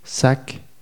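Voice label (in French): "sac", French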